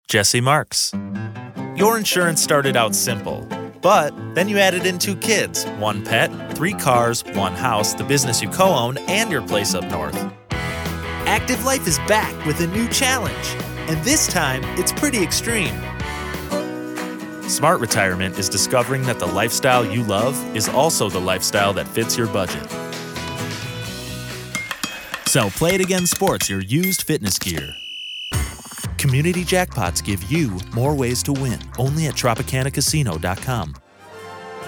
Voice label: Male Commercial

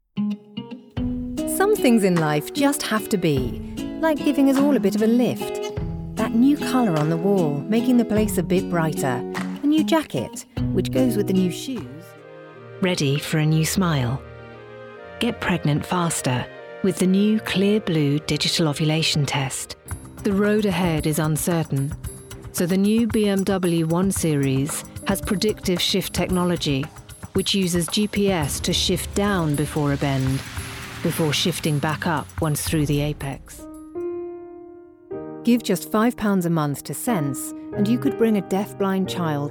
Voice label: Female, commercial, British

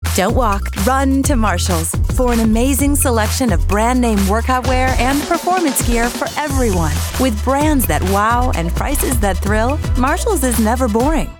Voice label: COMMERICAL

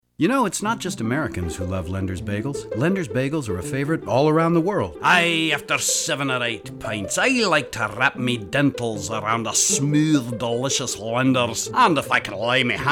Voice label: Character

